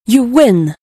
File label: "you win", en; lector; Caribbean Beach Poker; wazdan